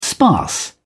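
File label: "sparse", British English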